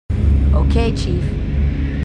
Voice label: marines female; h2dialogue